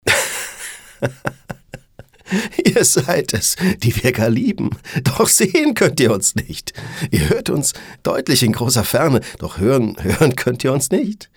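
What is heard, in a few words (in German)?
froehlich, Sprecher, Männer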